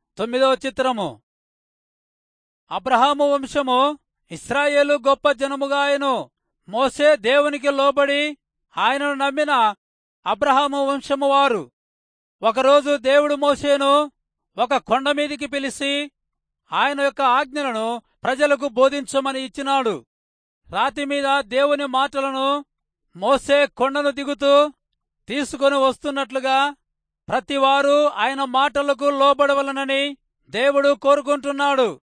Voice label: telugu rayalseema; good news